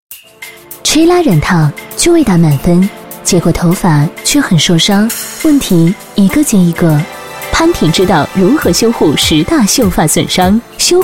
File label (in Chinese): 女4号 广告 洗化类 感性品质, 国语女声配音